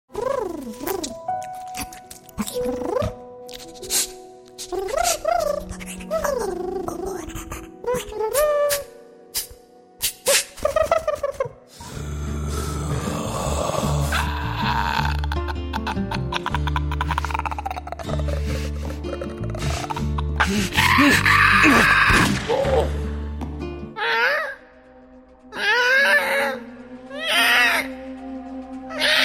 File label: creature